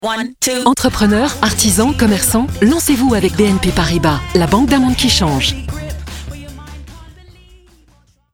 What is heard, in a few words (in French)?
souriant; Business; BNP